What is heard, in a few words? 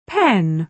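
"pen", British English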